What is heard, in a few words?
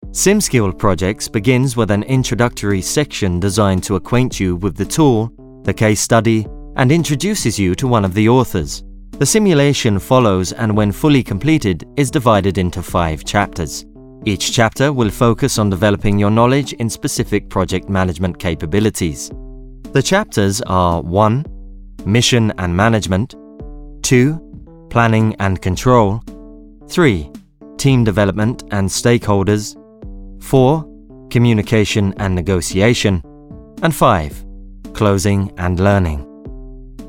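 British elearning; sprecher; englisch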